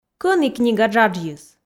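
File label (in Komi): кӧні книга джаджйыс, Komi, female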